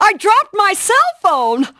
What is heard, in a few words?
Female Cellphone